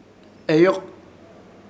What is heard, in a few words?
"Eyok", Ishir Chamacoco; nosotro